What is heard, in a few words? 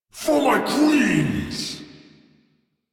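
Attack, English; Warframe; Captain Vor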